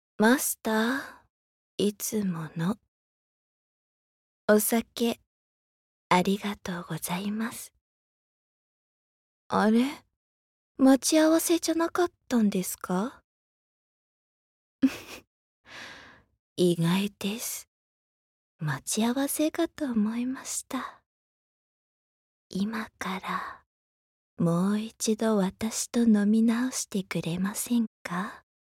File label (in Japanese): Sexy Na Onesan